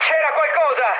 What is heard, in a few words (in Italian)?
trick, mood med, speech it; War Thunder